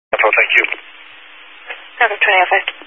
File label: Highway Patrol, Phoenix Metro East Area, scanner